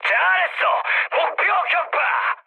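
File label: Korean bomb success mood high; speech; War Thunder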